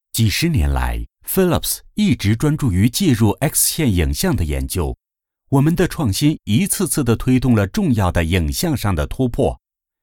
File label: Voice Over Chinese